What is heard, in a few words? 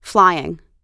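"flying", US English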